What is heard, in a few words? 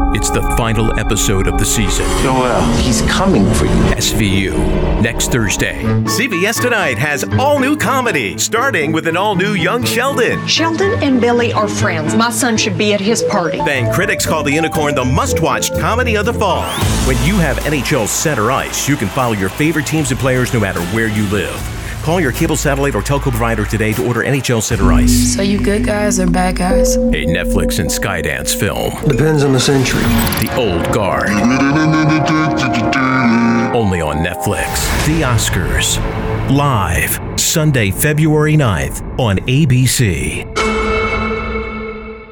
network promo voiceover